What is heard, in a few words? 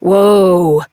Horse Stop Walk Adult; Duviri